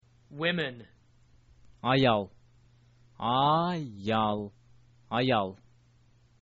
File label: women, kyrgyz